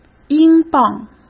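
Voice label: "英镑", Mandarin Chinese; chinese-flashcards; hsk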